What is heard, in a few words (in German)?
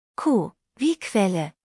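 "quelle", German; Q wie Quelle; buchstabierung alt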